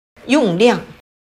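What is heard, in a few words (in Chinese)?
"用亮", Chinese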